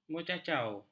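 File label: "mencacau", Malay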